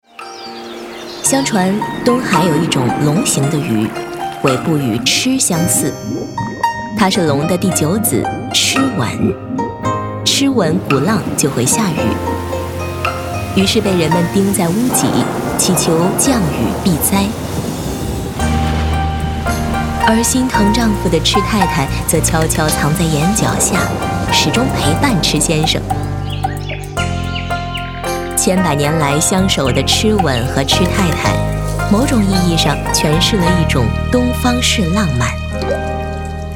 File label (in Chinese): 女 专题纪录片 主持讲解; 识物志; 飞檐翘角